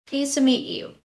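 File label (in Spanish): Voice Acting es F, Spanish, female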